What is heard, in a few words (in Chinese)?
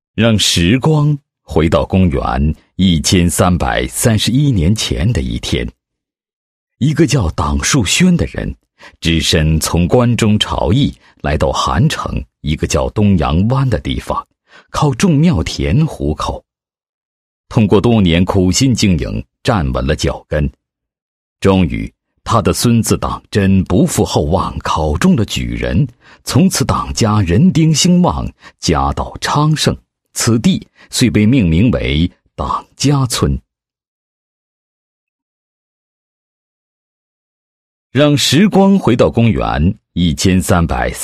男-专题-镌刻在青砖上的家训, 配音案例